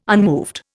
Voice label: "unmoved", Indian